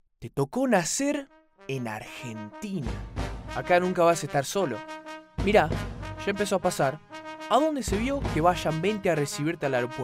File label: Spanish